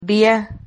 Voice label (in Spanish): "ria", Silabas